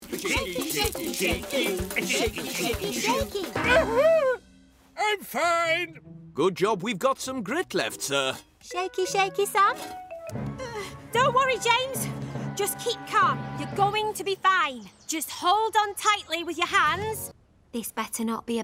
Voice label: Animation